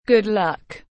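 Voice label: "good luck", English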